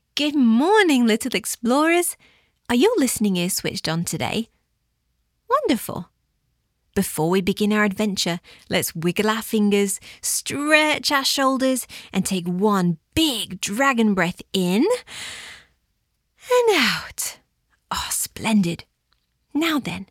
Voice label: pre school narrator